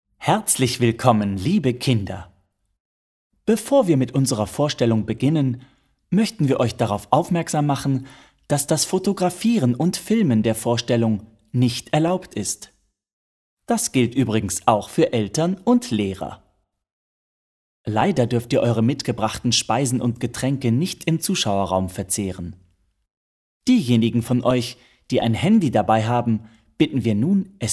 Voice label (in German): kindertheater ansage